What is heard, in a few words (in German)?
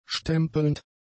"stempelnd", German; adjectives